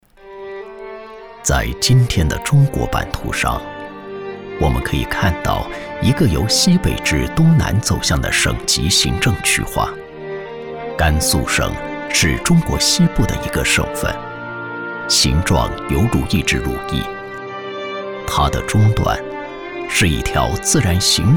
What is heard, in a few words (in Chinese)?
人文纪录片 厚重 情怀